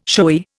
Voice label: "showy", Indian